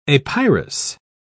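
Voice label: "apyrous", British English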